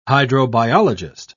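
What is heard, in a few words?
"hydrobiologist", British English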